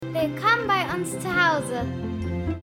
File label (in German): 11 Jahre tv-spot; barbie